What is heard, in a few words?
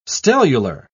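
"stellular", British English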